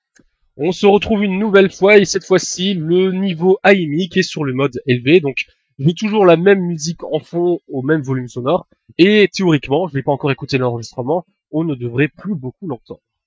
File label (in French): test asus ai mic bas eleve